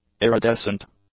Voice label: "iridescent", english; adjectives